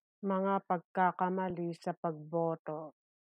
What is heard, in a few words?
Tagalog; mistakes of voting; mga pagkakamali sa pagboto